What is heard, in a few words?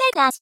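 "techrash", voice; Techmino; rin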